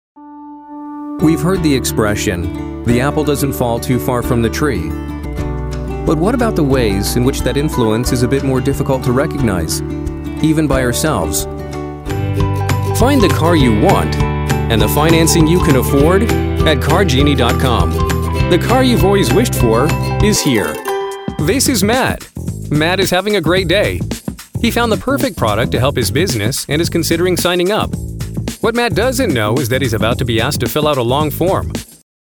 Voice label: Casual